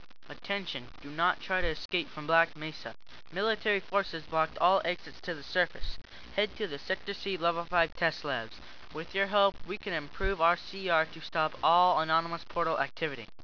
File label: blocked exits, speaker; svencoop